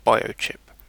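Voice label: "biochip", British English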